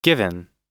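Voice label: "given", American English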